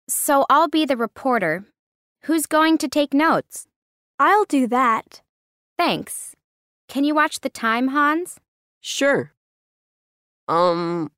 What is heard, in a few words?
ESL Primary American